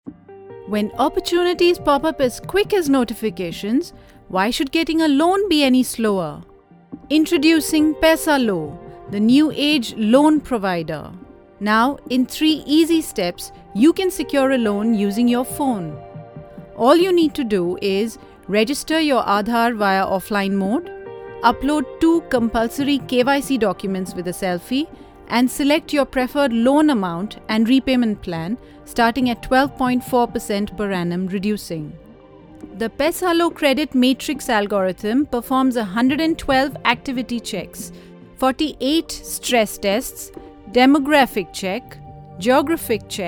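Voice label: Explainer English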